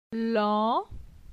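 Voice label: "Lan", French; phonetique; la syllabe an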